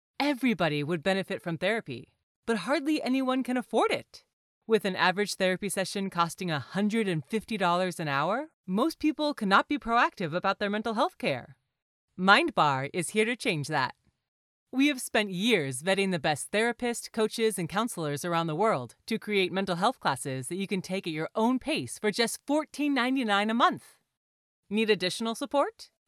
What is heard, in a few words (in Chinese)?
成熟亲切,医疗宣传